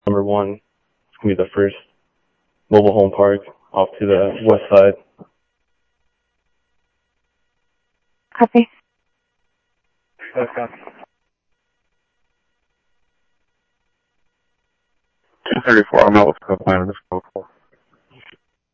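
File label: Sheriff West County, scanner audio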